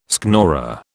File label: "schnorrer", British